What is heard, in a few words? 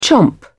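"chomp", British English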